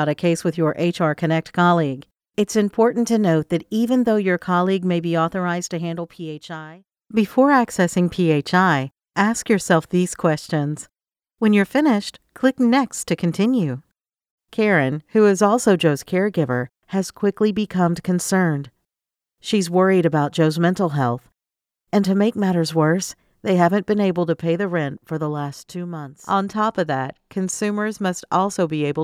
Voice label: eLearning Edu Training